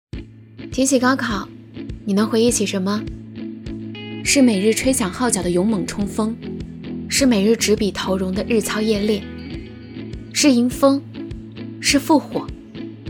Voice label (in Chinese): 女 广告 高考青春素人